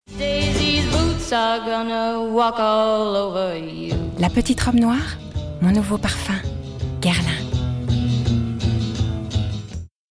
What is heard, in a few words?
French Commercial